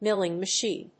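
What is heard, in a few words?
"Milling Machine", English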